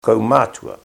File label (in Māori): "kaumatu", te reo